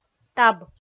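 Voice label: "таб", Komi, female; audio dict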